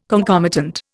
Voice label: "concomitant", Indian